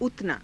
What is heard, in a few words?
"utanaa", Hindi